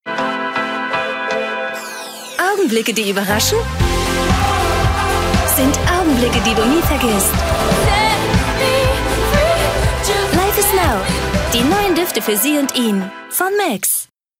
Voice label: tv spot parfum; mexx

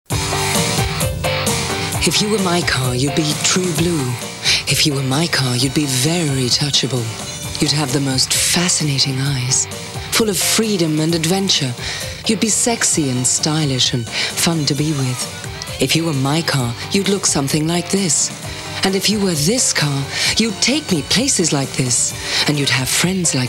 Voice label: uk englisch; LOFT VOICES

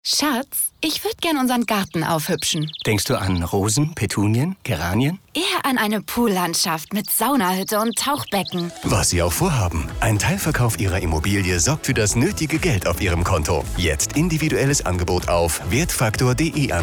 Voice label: Radio-Spot; Wertfaktor

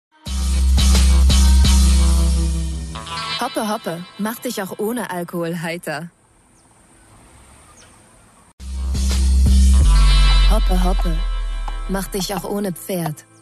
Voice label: commercial abbinder; LOFT VOICES

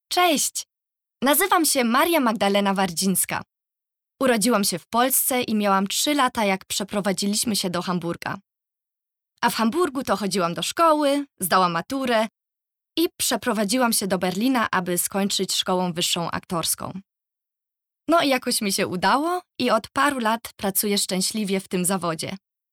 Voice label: Polnisch

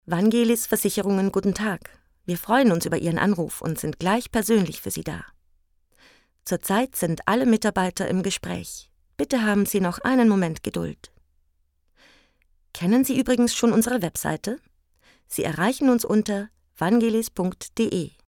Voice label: Telefonwarteschleife

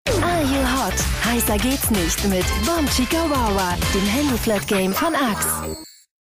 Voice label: tv spot werblich jugendlich sexy; axe

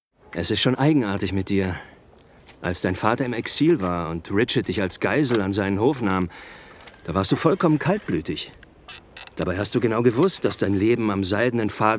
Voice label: hoerspiel; audible; LOFT VOICES

